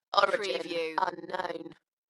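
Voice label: British female Origin unknown; Dalekness; beanstalk audio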